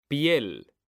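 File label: "piel", Spanish, male; mekanta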